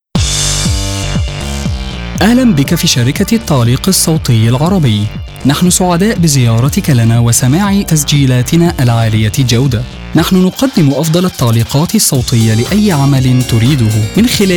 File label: Male Arabic showreel; the arabic voice over company